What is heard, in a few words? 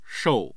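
"shou4", Mandarin Chinese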